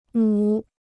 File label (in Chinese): "母", Chinese